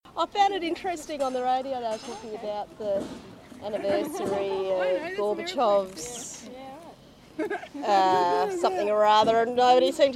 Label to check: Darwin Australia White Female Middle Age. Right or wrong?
Right